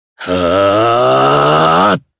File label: Casting, jp; Kain; kings raid